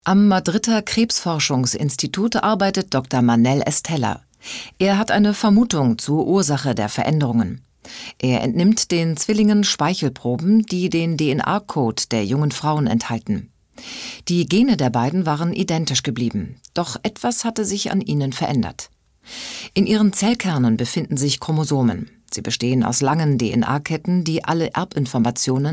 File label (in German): kommentar